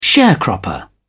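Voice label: "sharecropper", British English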